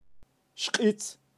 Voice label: "xkitz", kaqchikel, pronunciacion de animales

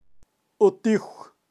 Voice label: "utiw" coyote, kaqchikel, pronunciacion de animales

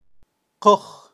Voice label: "koj" leon, kaqchikel, pronunciacion de animales

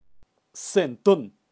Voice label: "sentun", kaqchikel, pronunciacion de animales

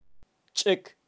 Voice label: "chek", kaqchikel, pronunciacion de animales

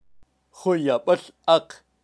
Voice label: "juyabal aq", kaqchikel, pronunciacion de animales

